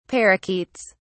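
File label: "parakeets", British English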